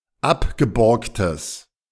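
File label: "abgeborgtes", German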